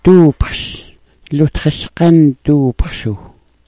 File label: "duupxs", smalgyax